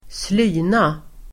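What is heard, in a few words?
"slyna", Swedish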